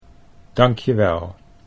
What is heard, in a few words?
"dank je wel", Dutch